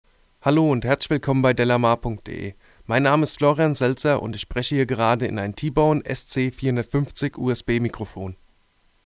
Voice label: t.bone sc450 usb stimme m

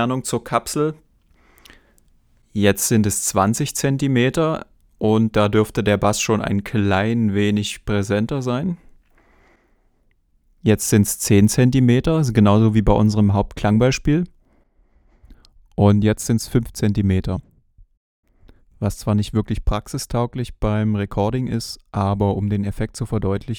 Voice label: shure ksm32 nahbesprechungseffekt

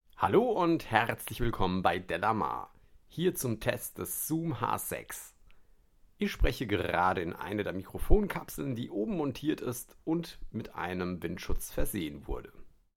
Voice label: stimme XY, Zoom H6

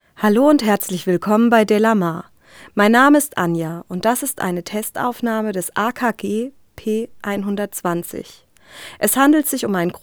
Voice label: akg p120 sprache f; akg p120 test

